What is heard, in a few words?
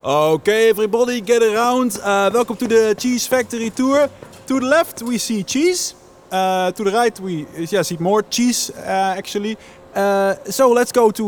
Animation